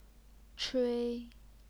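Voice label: "chui", first tone, Chinese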